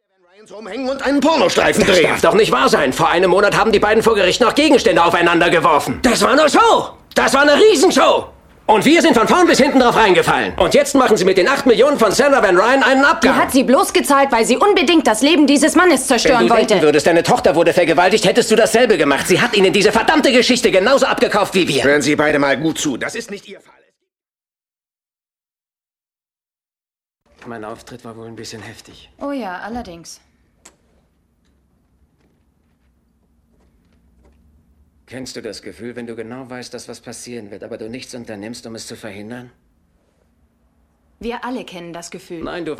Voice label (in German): kino synchron; wild thing; kevin bacon